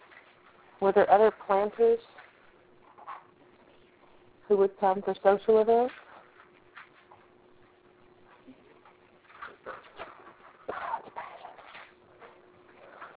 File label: Too Much Pain; Shadows on the teche